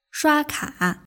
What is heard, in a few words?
"shuaka", Mandarin; maidan